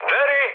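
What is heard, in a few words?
Serbian enemy detected mood high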